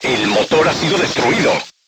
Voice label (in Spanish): voice message engine destroyed, spanish